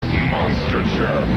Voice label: monster jam live television commercial; boston ma; 1999